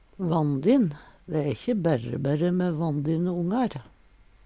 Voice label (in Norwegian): "vanndin", Numedalsmål